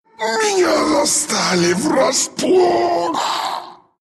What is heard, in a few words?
death, ru; dota2